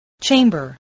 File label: "chamber", English, voice